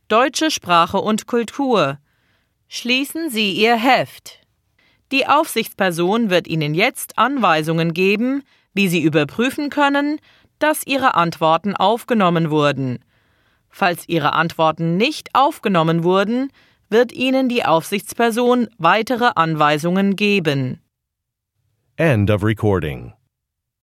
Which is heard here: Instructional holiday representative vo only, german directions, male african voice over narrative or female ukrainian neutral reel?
german directions